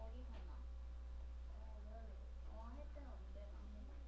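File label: "ring", spoken word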